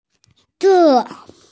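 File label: "re4", Mandarin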